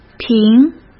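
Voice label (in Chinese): "平", Mandarin Chinese; hsk; chinese-flashcards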